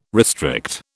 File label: "restrict", British